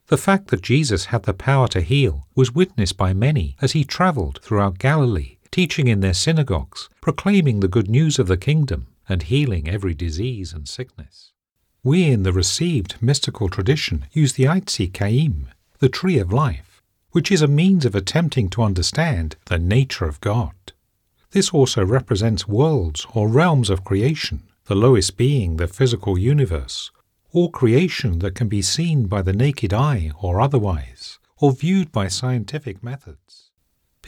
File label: Spiritual, voiceovers